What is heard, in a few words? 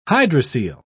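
"hydrocele", British English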